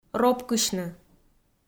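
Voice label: "ропкысьны", Komi, female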